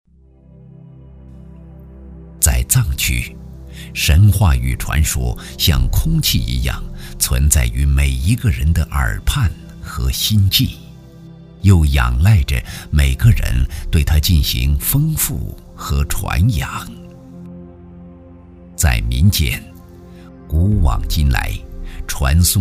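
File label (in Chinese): 男国大音-纪录片; 夏河唐卡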